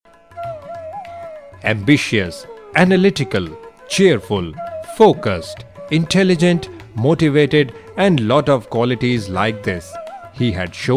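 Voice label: English, narration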